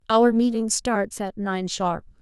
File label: sharp, Emphasis; PromptTTS++